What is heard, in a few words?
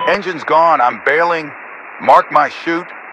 Radio pilot Ejecting